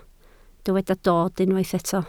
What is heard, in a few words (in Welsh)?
"dod" Welsh